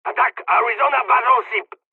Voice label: first wave ships, dialogs hungarian; War Thunder